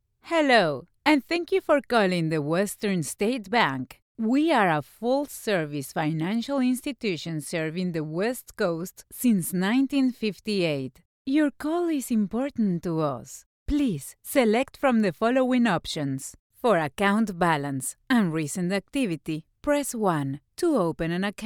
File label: english ivr